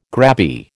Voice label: "grabby", American

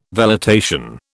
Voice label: "velitation", British

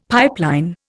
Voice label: "pipeline", Indian; Dictionary

